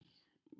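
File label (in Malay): "baldu", Malay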